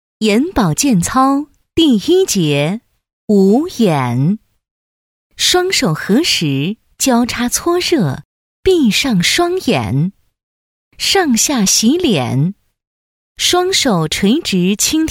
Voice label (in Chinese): 课件, 眼保健操